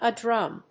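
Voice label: "A drum", English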